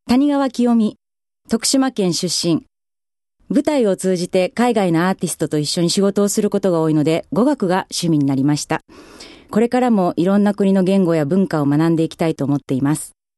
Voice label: freetalk, female voice